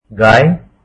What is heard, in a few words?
hindi cow